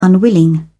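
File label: "unwilling", English, British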